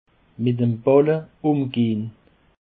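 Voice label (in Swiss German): "balle umgehn", Alsatian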